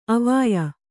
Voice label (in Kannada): "ಅವ್ವಯ್ಯ", Kannada